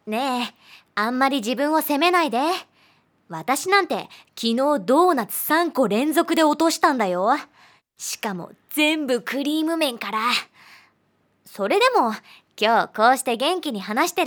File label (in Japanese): 外画 明るい女の子 ティーン